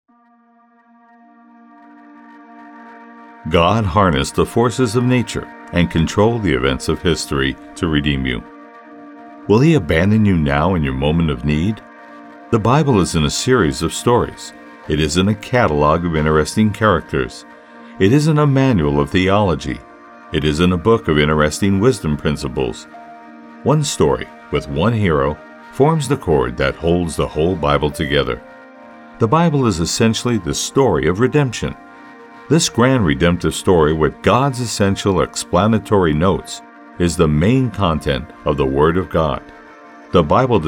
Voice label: Inspirational, Voice Over Demos